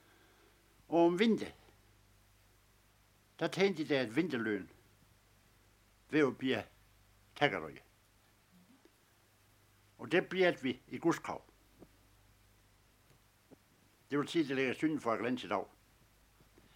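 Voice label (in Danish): Dialekt fra Højer Landsogn vest for Tønder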